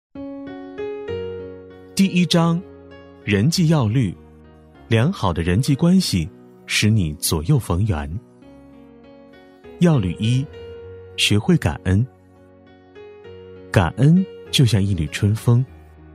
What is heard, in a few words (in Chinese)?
男 课件; 淬炼金牌员工